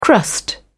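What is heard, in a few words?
"crust", British English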